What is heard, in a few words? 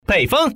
common man